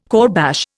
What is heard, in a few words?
"kourbash", Indian